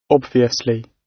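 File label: "obviously", British English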